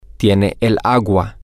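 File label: she has the water, Spanish, male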